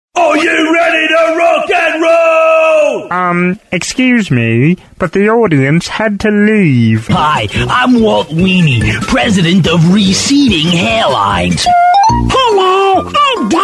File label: Cartoon